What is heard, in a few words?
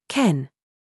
DRESS TRAP, minimal pairs